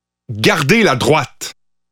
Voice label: Pathfinder French Canadian